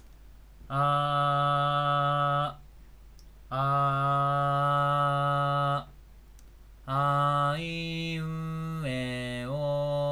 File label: SANWA SUPPLY ag-03